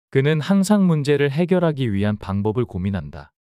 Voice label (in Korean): 문장 남자; 그는 항상 문제를 해결하기 위한 방법을 고민한다